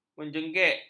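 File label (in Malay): "menjengek", Malay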